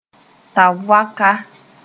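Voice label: "tab-voaka", Mooré; tobacco nursery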